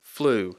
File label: "flu", English